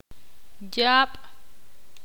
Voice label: ixil